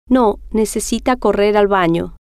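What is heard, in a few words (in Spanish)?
no Necesita Correr Al Bano, spanish, female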